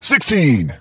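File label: "Sixteen", English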